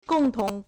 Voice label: "gong4tong2", Chinese

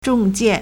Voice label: "zhong4 jian4", Chinese

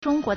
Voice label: "zhong1 guo2", Chinese

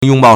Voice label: "yong1 bao4", Chinese

